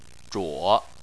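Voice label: "zhuo" Chinese, third tone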